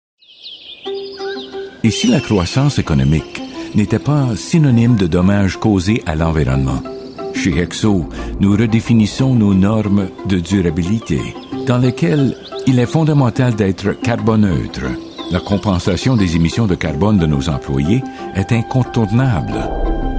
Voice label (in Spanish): Masculino